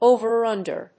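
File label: "over under", English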